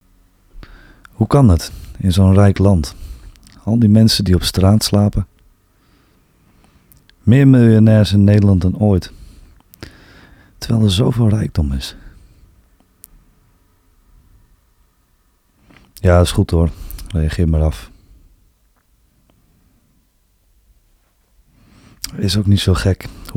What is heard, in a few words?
Voice Over TV Spot; NPO